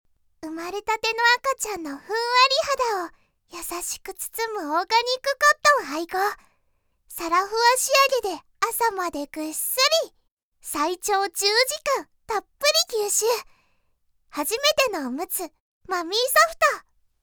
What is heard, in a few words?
japanese animation